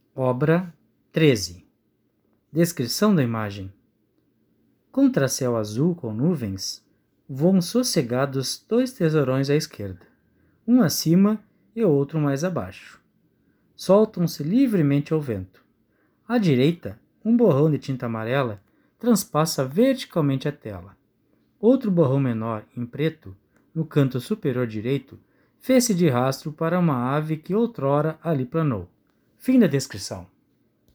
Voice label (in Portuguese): audiodescricao; Galeria virtual